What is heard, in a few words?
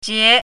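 "jie2", Mandarin Chinese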